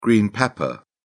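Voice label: "green pepper", British English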